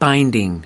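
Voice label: "binding", US English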